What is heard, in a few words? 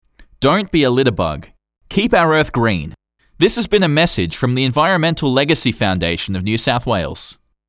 Anti Litter Sponsor